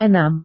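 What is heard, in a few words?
"enam", Indonesian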